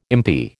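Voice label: "impi", American English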